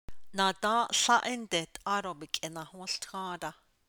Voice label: The corn did not recieve even a single rain drop, Navajo